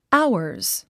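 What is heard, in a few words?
"ours", English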